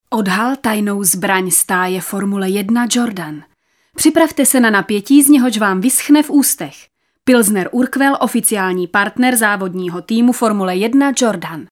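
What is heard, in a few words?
female Czech commercial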